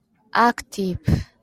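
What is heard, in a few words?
"active", Japanese speaker of English, female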